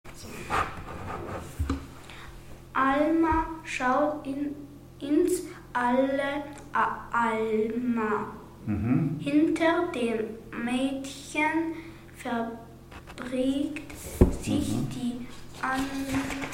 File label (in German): Quatsch schnell gelesen